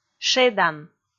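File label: "шедан", Komi, female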